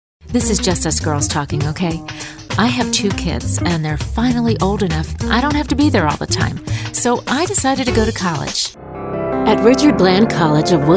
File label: Commercial